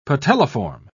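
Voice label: "patelliform", British English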